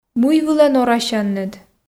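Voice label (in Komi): мый вылӧ норасянныд, Komi, female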